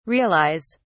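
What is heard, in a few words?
"realise", British English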